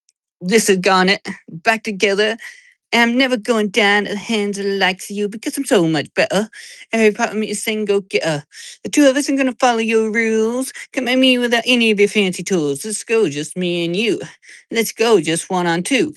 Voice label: voice message